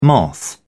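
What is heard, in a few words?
"moth", British English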